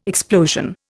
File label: "explosion", Indian